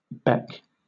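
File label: "beck", British English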